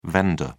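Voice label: "vender", British English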